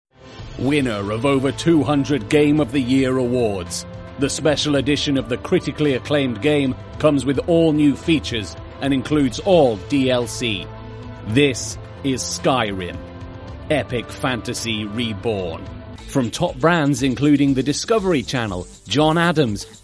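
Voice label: English M Commercial, male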